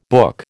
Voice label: "book", American

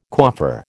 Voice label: "coiffeur", American

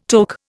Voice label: "toque", Indian

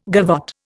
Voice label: "gavotte", Indian

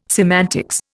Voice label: "semantics", Indian

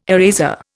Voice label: "eraser", Indian

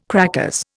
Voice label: "crackers", Indian

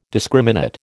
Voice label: "discriminate", American

